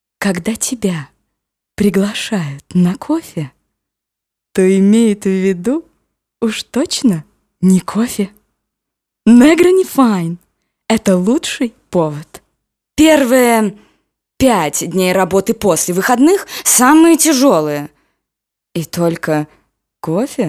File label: RUSSIAN